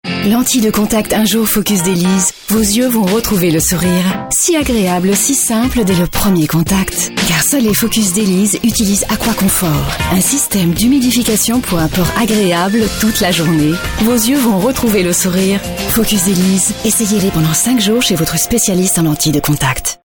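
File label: French cheerful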